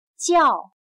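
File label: "叫" Chinese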